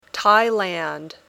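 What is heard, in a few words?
"Thailand", English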